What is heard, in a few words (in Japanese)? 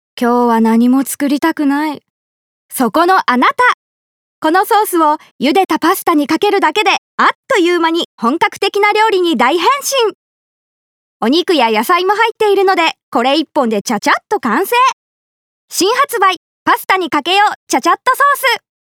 ソースCM